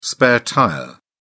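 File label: "spare tyre", British English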